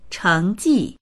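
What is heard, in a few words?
"cheng2ji4", Chinese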